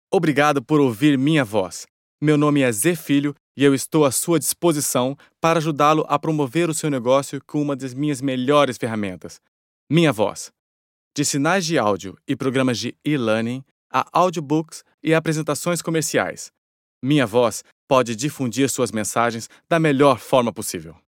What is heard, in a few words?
male brazilian portuguese promo